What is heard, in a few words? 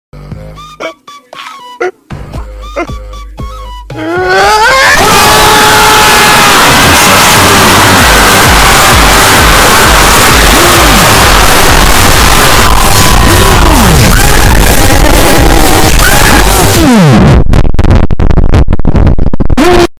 omg loud